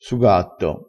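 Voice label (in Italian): "sugatto", dialetto crespinese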